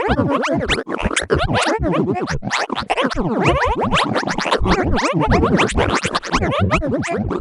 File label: Granular alien girl